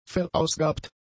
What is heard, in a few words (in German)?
"verausgabt", German; adjectives